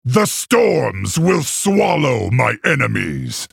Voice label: male orc threaten

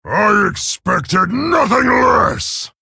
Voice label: male orc; mirror start

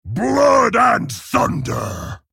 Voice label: male orc emote

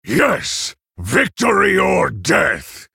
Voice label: male orc ogre mirror greetings

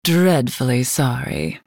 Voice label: female night elf sorry

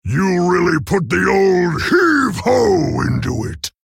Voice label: male orc well played

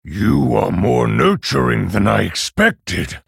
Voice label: male orc ogre well played

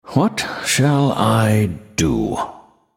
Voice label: male nightborne thinking

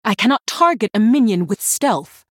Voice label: female human error stealth

